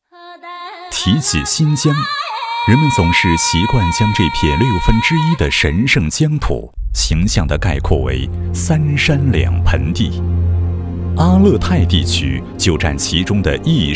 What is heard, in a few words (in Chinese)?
男 悠远深长 宣传片, 国语男声配音, 浑厚磁性; 新疆宣传片